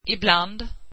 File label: swedish sometimes